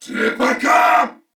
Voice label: Reload, Corpus Venus Male; Dialog; Barks; Battle; Warframe; CorpusVenusMaleA